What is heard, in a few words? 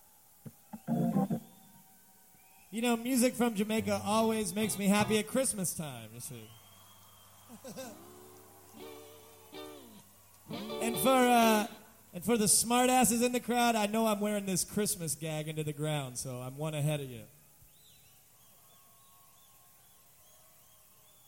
Christmas Banter